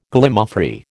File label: "Gallimaufry", American